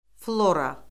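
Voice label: "flora", Azerbaijani; Orfoqrafiya Lüğəti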